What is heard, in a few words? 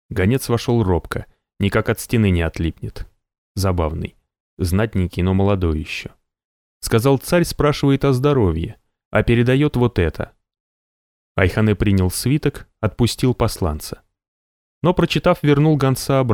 Russian Male